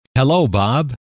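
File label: "hello", male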